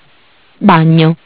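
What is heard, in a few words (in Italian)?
"Bagno", Italian; Bentel; BLE320; MESSAGGI VOCALI; Audio BGS220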